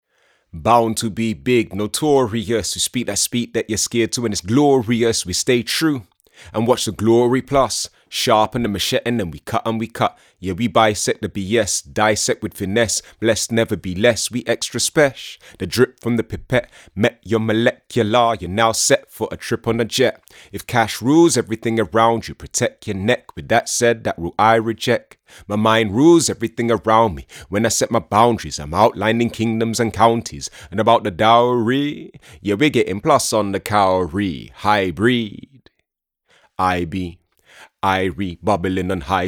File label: male rap; lct540s